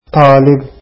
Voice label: student, arabic

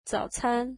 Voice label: breakfast, mandarin

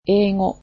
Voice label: "English", japanese

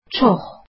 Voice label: "very", azeri

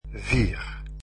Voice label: "four", dutch

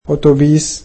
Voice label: "bus", egyptian

